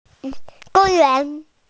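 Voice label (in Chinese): "gong1yuan2", Chinese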